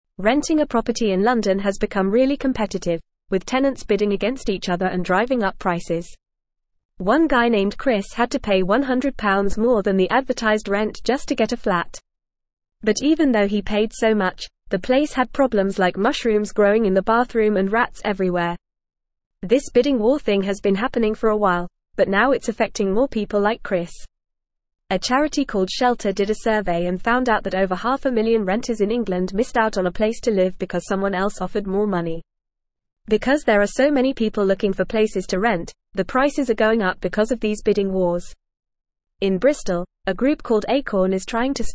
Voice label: Upper Intermediate FAST Reading, English; English Newsroom; Rental Market Bidding Wars Drive Up Prices